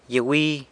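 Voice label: "gyiluzh", guava tree, San Lucas Quiaviní Zapotec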